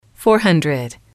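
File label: "four hundred", English, female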